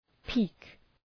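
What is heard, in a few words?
"peek", English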